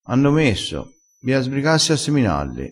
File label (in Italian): "mette", dialettale crespinese